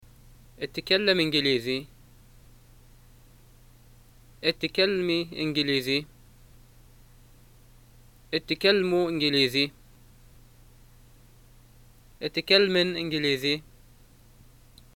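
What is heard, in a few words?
do you speak English, Libyan arabic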